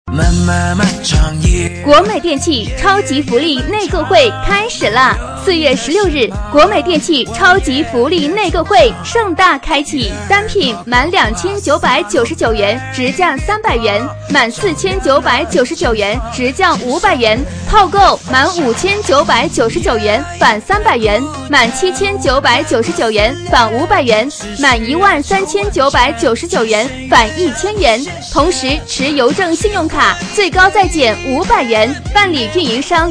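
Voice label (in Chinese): 女促销; 国美电器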